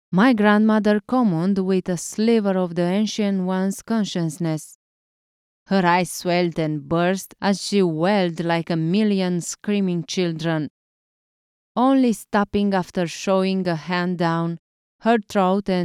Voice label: romanian video games

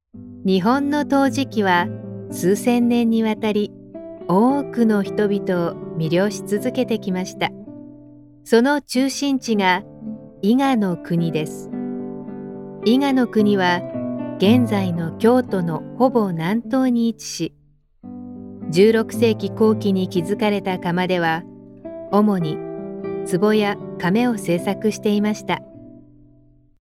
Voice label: japanese narration

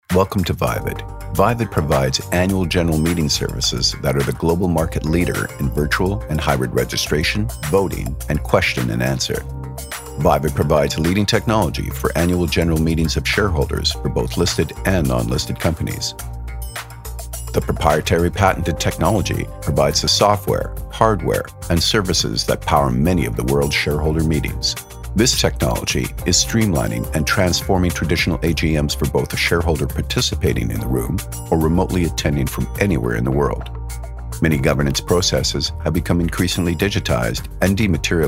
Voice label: english canadian corporate videos

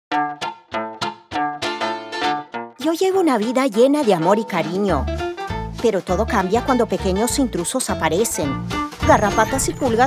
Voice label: spanish latin american commercial

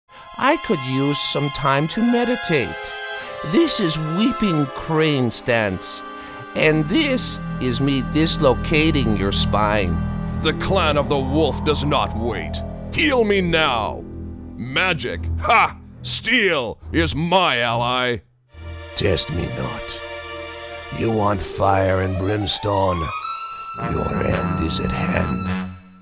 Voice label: english american video games; Profundo e autoritário com clareza elegante e calor

